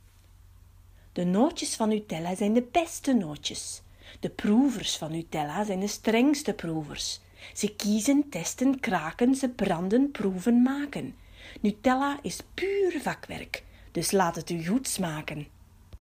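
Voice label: Dutch General Flemish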